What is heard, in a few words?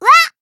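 damaged, Japanese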